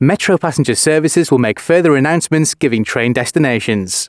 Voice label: announcements, male